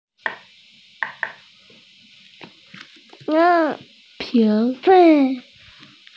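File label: "ping2", Mandarin Chinese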